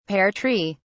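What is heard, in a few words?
"pear tree", British English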